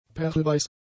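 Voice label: "perlweiß", German; adjectives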